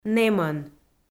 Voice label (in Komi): "нэмӧн", Komi